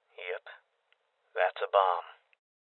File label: Voices Bomb Spotted Male Young; rebel cops 2019